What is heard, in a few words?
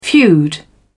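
"feud", English, British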